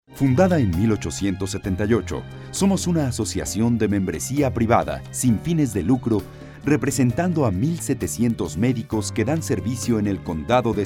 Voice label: male